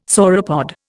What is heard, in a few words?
"sauropod", Indian